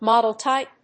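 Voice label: "model type", English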